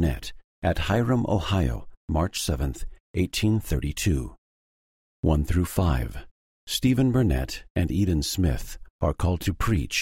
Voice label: section female voice eng

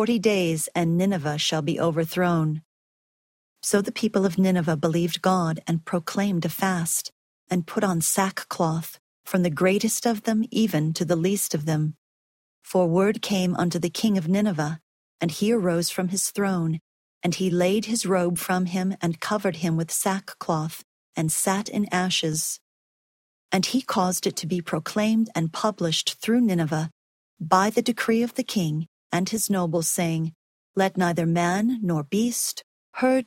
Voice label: jonah female voice; the old testament

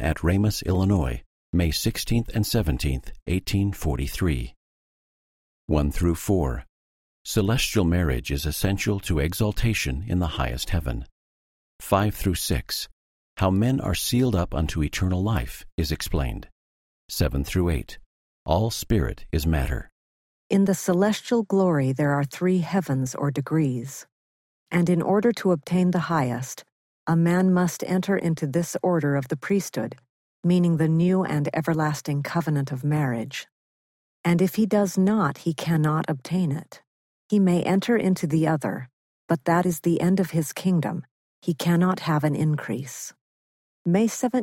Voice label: section female voice eng

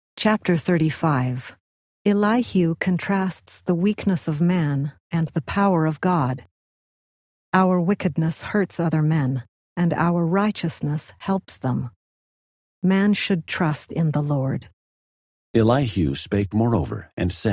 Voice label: job male voice; the old testament

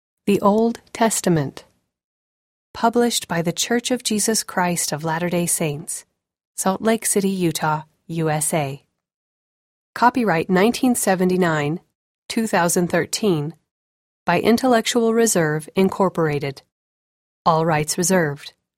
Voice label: female voice, eng; old testament title page; the old testament

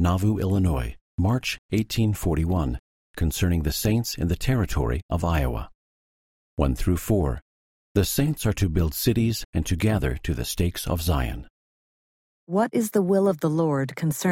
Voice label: section female voice eng

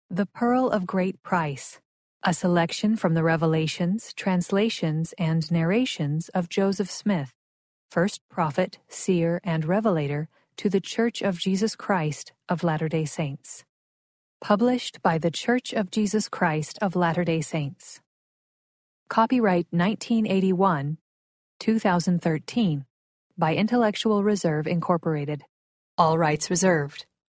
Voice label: title female voice; the pearl of great price

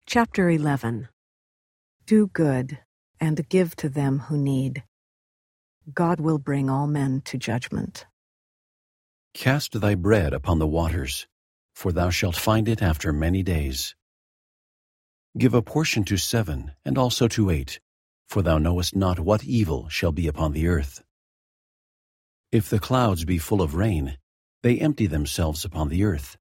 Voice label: ecclesiastes male voice; the old testament

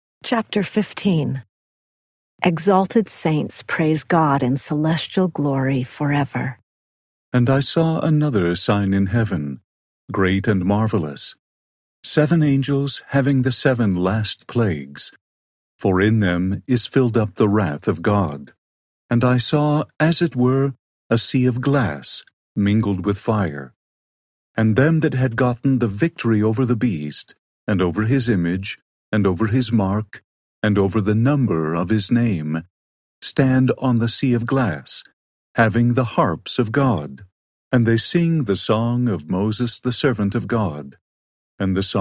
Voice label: male voice; revelation 15, eng; the new testament

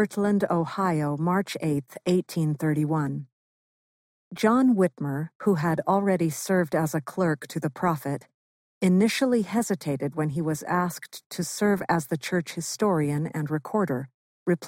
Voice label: section 47 male voice eng; the doctrine and covenants

